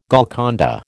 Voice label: "Golconda", American